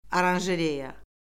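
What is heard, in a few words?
"oranjereya", Azerbaijani; Orfoqrafiya Lüğəti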